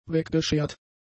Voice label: "weggeschert", German; adjectives